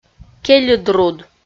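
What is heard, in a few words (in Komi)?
"кельыдруд", Komi, female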